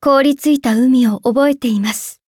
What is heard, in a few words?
upgrade, ja; cookie run